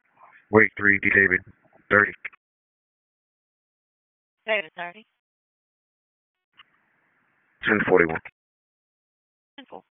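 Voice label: Sheriff's Office Dispatch 1, p25; WSO DISP 3; wake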